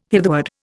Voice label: "hitherward", Indian

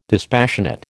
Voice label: "dispassionate", American

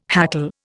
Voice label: "hackle", Indian

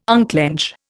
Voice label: "unclench", Indian

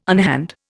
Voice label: "unhand", Indian

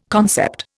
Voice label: "concept", Indian

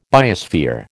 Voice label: "biosphere", American

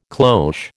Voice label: "cloche", American

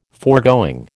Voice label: "foregoing", American

